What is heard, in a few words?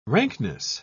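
"rankness", English, British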